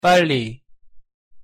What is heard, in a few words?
"빨리", Korean; pronunciation